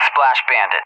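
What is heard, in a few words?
"splash bandit", English, USA; DCS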